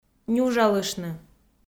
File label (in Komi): "нюжалыштны", Komi